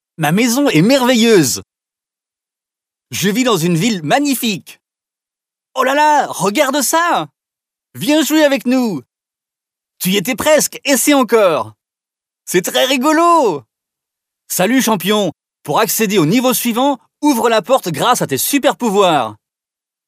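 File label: French male children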